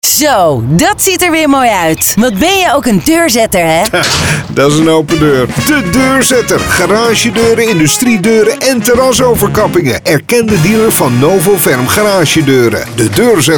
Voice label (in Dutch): commercial radio; De Deurzetter; Radio NL